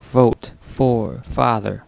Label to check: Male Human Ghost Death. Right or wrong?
Wrong